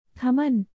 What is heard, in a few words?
"thaman", hindi; indonesian boy names